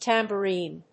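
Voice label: "tambourine", English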